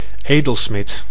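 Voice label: "edelsmid", Dutch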